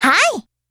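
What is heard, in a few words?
AGREE, Japanese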